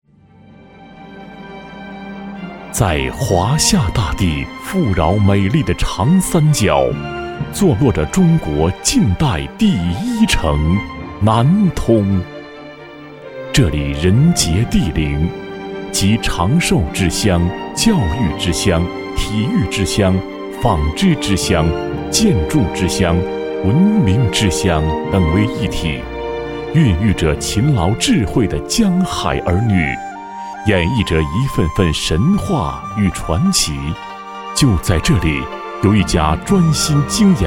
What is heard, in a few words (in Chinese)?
男 南通（浑厚感情）